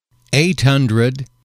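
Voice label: "eight hundred", English, male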